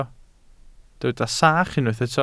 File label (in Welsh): "sach", Welsh